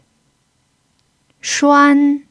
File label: "shuan1", Chinese